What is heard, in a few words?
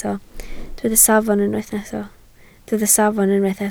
"safon", Welsh